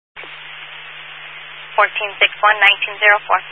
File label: Highway Patrol, Phoenix Metro West Area, scanner